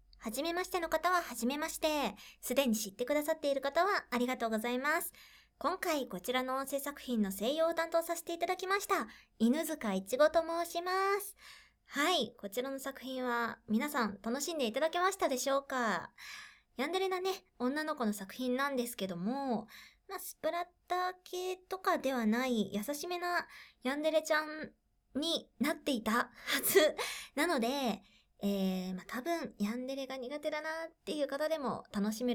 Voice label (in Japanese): フリートーク